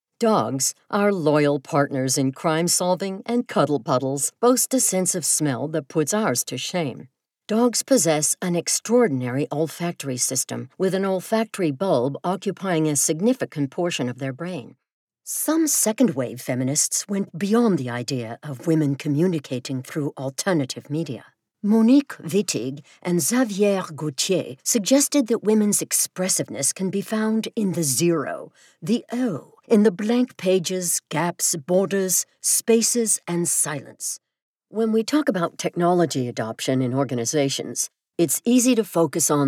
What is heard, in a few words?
Audiobook, voiceover